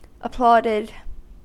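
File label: "applauded", US English; pronunciations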